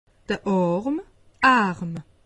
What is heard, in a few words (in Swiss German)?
"arm", alsace; medecine